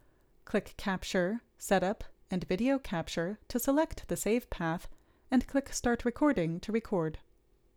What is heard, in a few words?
Gong Voiceover